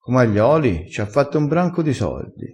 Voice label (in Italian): "co", crespinese